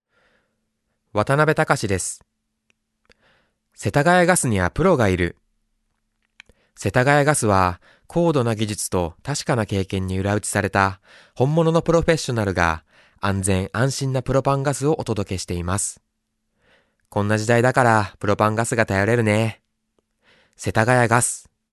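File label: Voice Sample Narration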